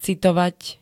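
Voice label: "citovať", Slovak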